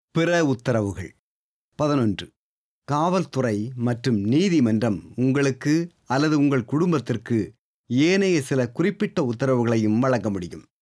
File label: other orders tamil